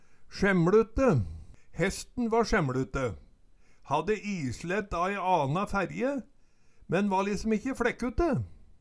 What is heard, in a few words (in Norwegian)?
"sjemLute", Numedalsmål